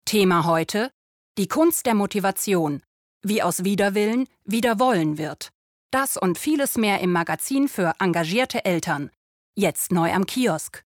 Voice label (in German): Casting Werbung; LOFT VOICES